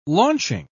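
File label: "launching", British English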